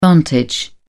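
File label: "vantage", British English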